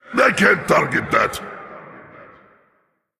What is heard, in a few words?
Male Elemental Emote ERROR TARGET